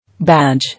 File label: "Badge", English